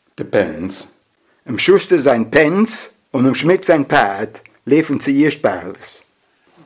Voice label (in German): "Pänz" mit Satz